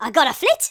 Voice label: take cover, Geordie; speech